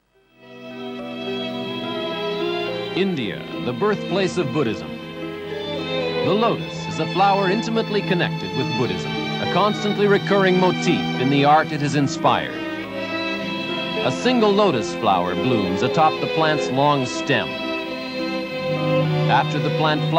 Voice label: usa man